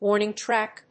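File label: "warning track", English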